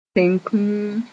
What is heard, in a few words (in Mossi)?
"tẽn-kũum", Moore; civil war